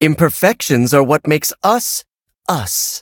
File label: loading, en